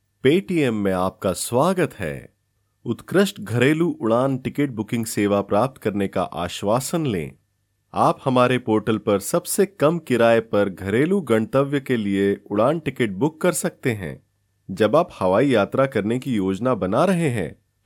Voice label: hindi voiceover locutor hindu